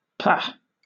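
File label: "pah", British English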